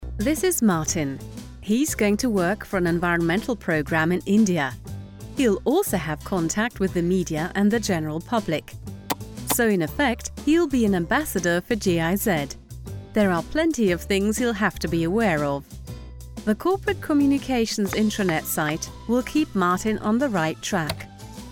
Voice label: e learning; giz; LOFT VOICES